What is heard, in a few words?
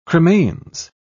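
"cremains", British English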